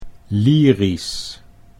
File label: "lyrisch", Dutch